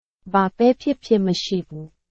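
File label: Burmese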